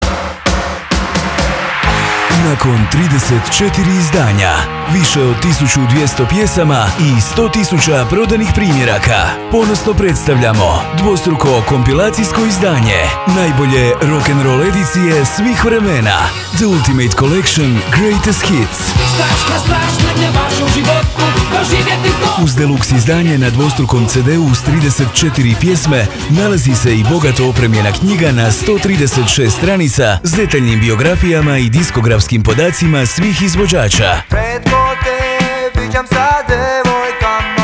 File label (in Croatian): radio reklama